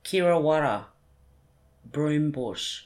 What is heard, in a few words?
"kiwarra" broombush